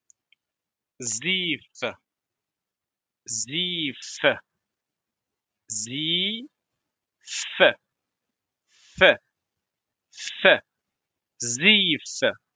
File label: scarf in moroccan arabic darija vocabulary